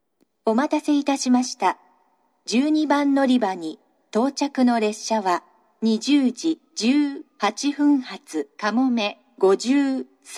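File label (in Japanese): 12番のりば接近放送, 女声, 西九州新幹線ホーム 自動放送; 12番のりば接近放送（かもめ53号 長崎行き）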